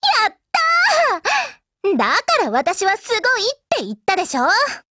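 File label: Japanese, Voice; GF Alarm; MicroUZIMod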